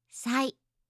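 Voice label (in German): "sai", japanische Mädchennamen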